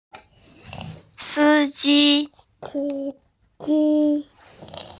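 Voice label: "si ji", Mandarin Chinese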